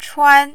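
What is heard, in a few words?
"chuan", Mandarin, tone 1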